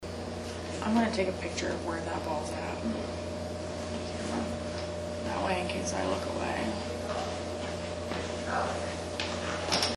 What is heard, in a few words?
inaudable male voice then hey; recorder 4th floor; undisclosed sanitorium